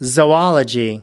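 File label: "zoology", US English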